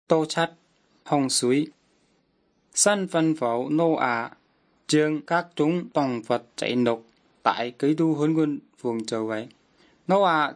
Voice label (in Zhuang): good news zhuang nanning fushu